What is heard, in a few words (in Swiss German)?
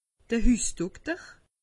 "hussdokter", alsace; medecine